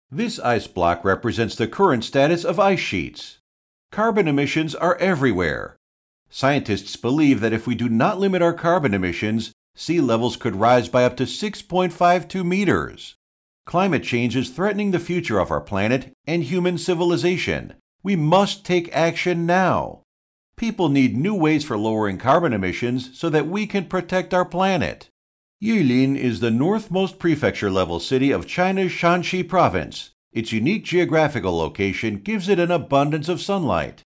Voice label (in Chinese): 科技宣传片, 成熟磁性